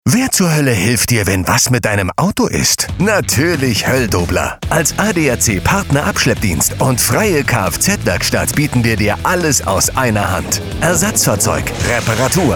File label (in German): Funkspot; Hoelldobler